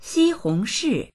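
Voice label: "xi1hong2shi4", Mandarin Chinese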